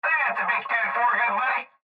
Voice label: Cb Trucker Radio